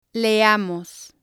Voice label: "leamos", spanish